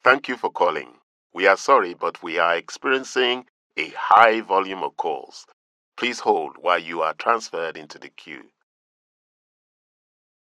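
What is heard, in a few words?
English African, IVR, West accent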